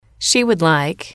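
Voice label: "she would like", English, female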